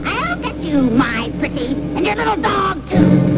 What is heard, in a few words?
witch; Dr. Windows